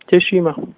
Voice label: meet, slovak

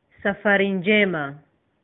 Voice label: bon voyage, swahili

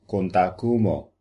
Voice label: how are you, Papiamento

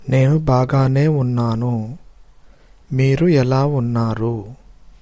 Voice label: "fine", Telugu

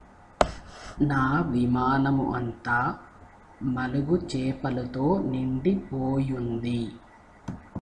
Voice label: hovercraft, Telugu; నా విమానము అంతా మలుగు చేపలతో నిండిపోయింది; naa vimaanamu anthaa malugu chaepalatho nindi poyunthi

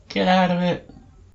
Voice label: "go away", English

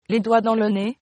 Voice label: easy, fr

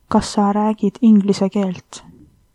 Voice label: do you speak english, estonian

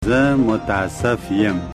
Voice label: sorry, pashto

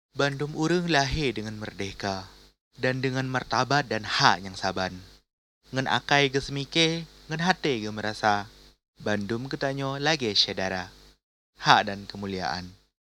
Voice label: Acehnese

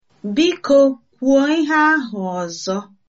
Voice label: say again, igbo

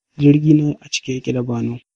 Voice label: hovercraft, hausa

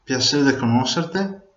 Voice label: "meet", Venetian

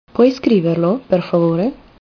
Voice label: write, italian

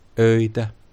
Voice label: goodnight, Finnish

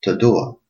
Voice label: i love you, albanian gheg

